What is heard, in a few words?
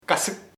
frightened, chamacoco; asustado